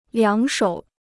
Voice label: "两手", Chinese